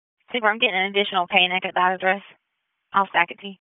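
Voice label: Dispatch, P25; WSO DISP 1; wake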